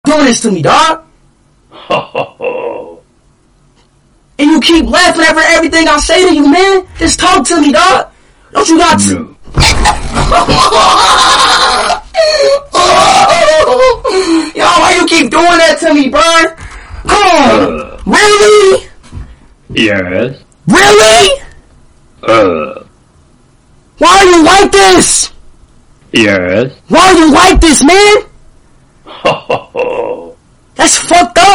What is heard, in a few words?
tamil talking tom, funny talking tom voice